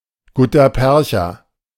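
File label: "Guttapercha", German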